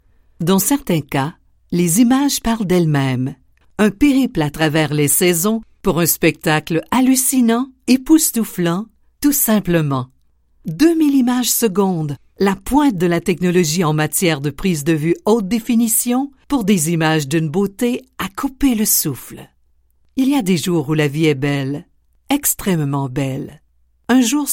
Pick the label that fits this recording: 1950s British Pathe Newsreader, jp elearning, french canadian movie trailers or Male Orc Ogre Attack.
french canadian movie trailers